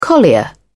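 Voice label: "collier", British English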